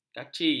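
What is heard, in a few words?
"kaci", Malay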